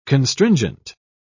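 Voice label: "constringent", English, British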